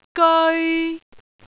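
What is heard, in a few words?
"geoi3", Cantonese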